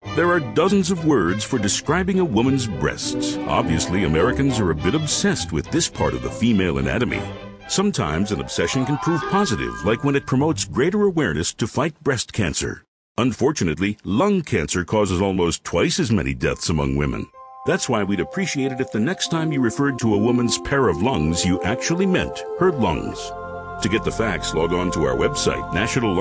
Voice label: PSA